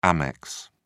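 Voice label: "amex", English, British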